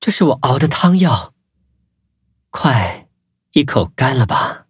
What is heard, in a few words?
levelup zh-hant; cookie run